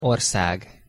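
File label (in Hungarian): "orszag" Hungarian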